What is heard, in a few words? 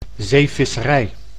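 "zeevisserij", Dutch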